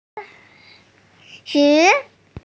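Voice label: "shi2", Mandarin Chinese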